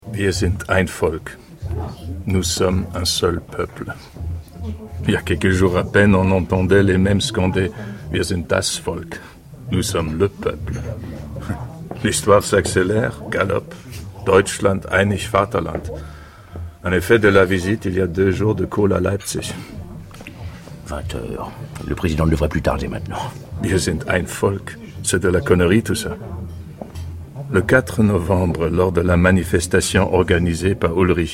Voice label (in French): voices fiction radio francais; muller